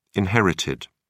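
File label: "inherited", British English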